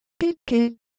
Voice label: Korean Sound, ideophones